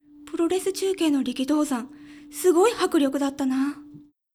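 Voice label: female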